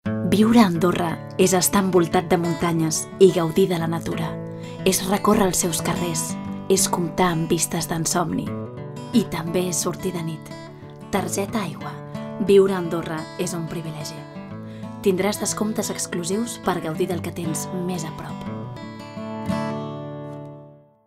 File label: female catalan tourism comercial